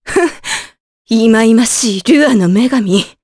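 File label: vox dia Japanese; Maria; kingsraid; jp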